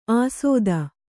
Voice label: "ಆಸೋದ", Kannada

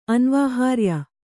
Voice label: "ಅನ್ವಾಹಾರ್ಯ", Kannada

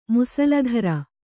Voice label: "ಮುಸಲಧಾರೆ", Kannada

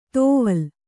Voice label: "ತೊವಲ್", Kannada

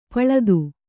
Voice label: "ಪಳಿತ", Kannada

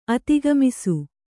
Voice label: "ಅಧಿಕಮಾಸ", Kannada

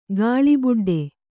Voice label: "ಗಾಳಿಬುಡ್ಡೆ", Kannada

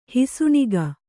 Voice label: "ಹುಸಿನಗೆ", Kannada

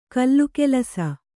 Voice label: "ಘಿಲಘಿಲಿಸು", Kannada

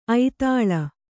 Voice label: "ಐದಾಳಿ", Kannada

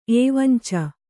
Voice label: "ಏವಂಚ", Kannada

